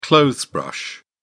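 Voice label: "clothes brush", British English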